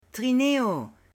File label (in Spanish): TRINEO, M